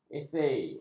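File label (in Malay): "esei", Malay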